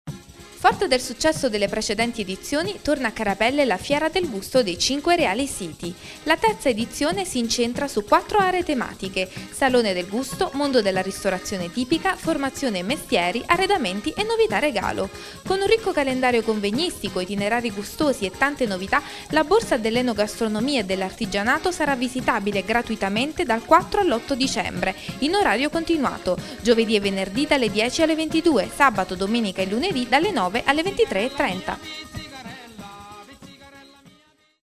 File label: spot radio; carapelle